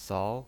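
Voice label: "sao", Mandarin Chinese pinyin voice, second tone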